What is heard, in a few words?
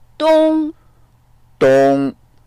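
"dong1", Mandarin Chinese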